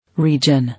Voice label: "Region", English